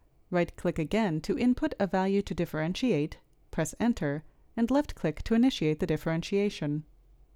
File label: Voiceover